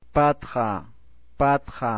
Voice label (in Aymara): "patxa", Aymara